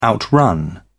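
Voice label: "outrun", British English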